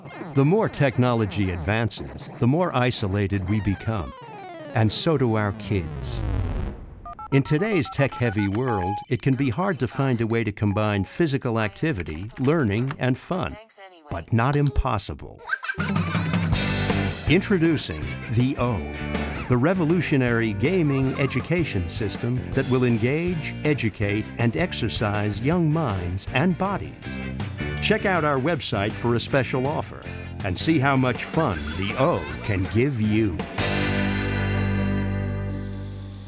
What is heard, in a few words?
narration